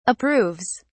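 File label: "approves", British English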